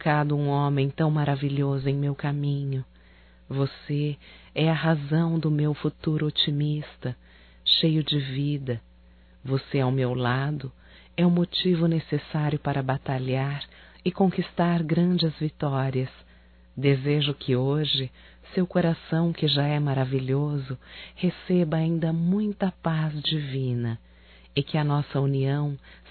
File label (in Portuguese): NATAL ROMANTICO; Natal e ano novo; fem; Telemensagens 2017